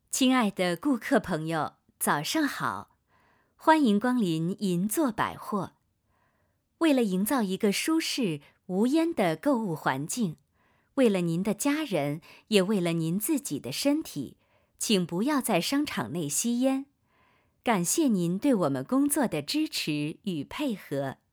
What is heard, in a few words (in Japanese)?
館内アナウンス